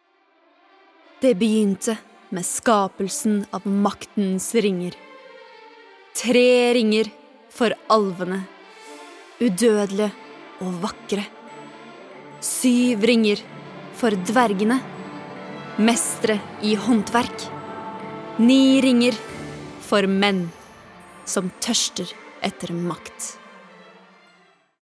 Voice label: no movie trailer